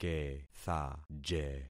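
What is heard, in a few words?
"kethaje", concatenated syllables; Affixing experiment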